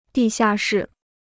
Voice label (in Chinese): "地下室", Chinese